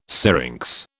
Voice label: "syrinx", British